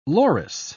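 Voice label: "loris", British English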